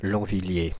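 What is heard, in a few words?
"Longvilliers", French; Paris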